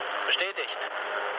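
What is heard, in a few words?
German player killed enemy mood low, speech; War Thunder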